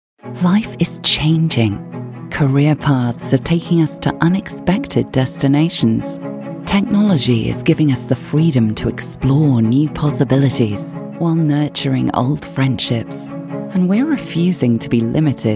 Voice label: corporate

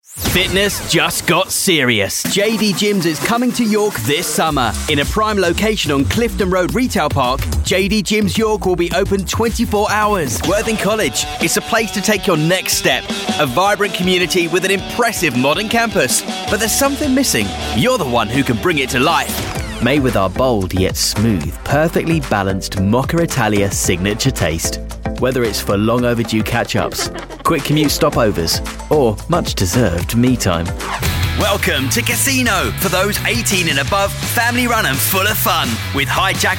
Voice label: commercials

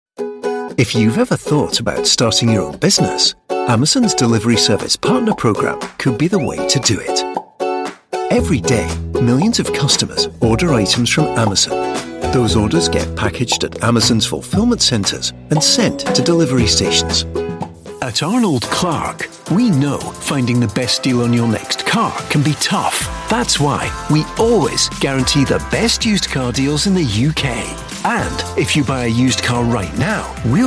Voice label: commercials

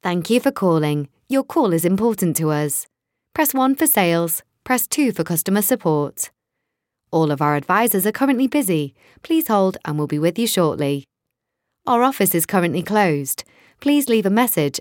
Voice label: telephone

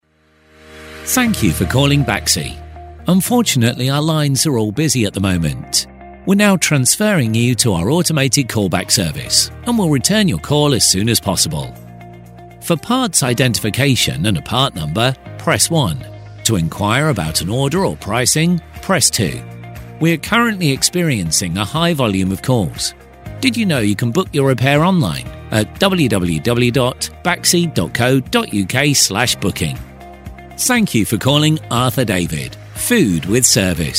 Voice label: on-hold

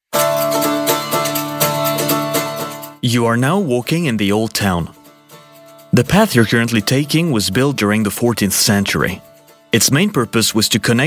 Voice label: french male audio tour en

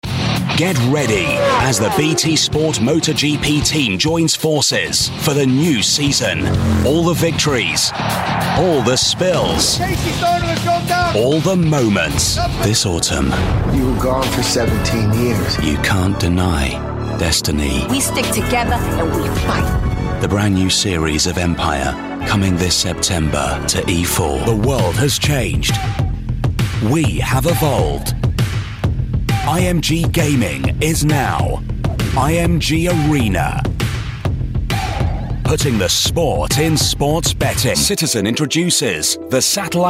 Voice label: hard sell commercials, Neutral RP Voice

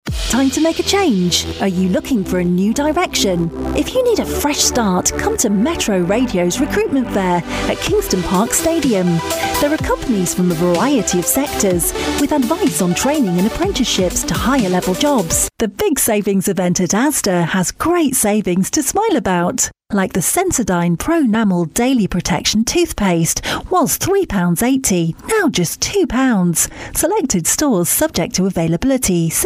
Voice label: montage, Fun Friendly Female Voiceover

